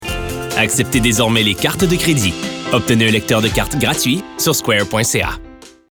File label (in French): Annonceur